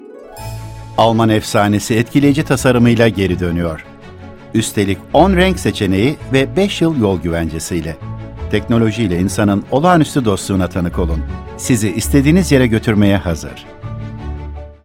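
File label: TR General, Turkish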